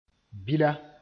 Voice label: "bila", moore